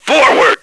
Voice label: forward, radio, male

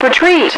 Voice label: retreat, radio, female

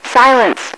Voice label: "silence", radio, female

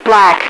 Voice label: "black", radio, female

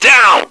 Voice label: down, radio, male

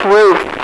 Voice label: "roof", radio female

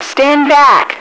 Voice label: standback, radio, female

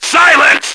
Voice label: silence, radio, male

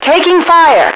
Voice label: taking f, radio female

